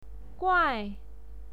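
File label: "guai", Mandarin Chinese pinyin, fourth tone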